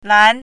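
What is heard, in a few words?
"lan2", Mandarin Chinese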